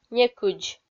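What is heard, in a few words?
"некыдз", Komi, female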